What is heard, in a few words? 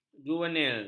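"juvenil", Malay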